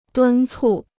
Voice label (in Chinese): "敦促", Chinese